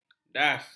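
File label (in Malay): "das", Malay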